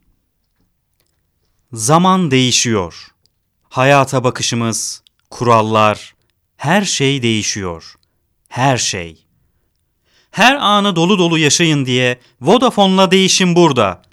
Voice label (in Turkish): REKLAM